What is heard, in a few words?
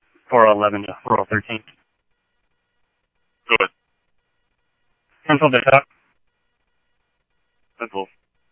Police, scanner; H-Scottsdale; ScottsdalePolice